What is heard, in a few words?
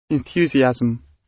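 "enthusiasm", British; Flora and fauna, agriculture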